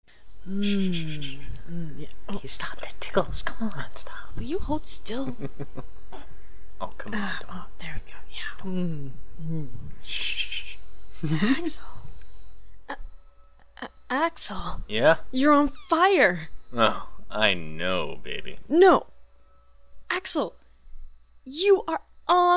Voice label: Commercial; Axel and Roxas - On Fire; Just working with what Nomura gave us.